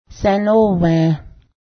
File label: lie nontruth, Cayuga; sęnó:wę; lie (v.) (non truth)